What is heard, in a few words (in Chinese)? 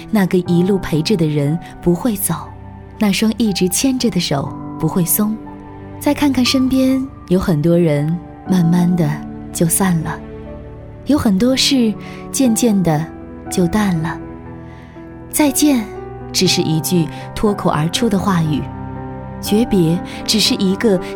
微电影 温柔走心 soul, 女